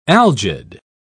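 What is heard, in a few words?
"algid", British English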